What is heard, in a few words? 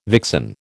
"vixen", American